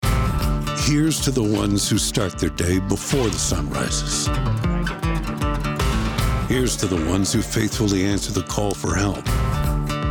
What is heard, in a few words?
Commercial